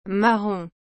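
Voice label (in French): "marron", French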